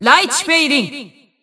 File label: System Voice; BBCP; Bullet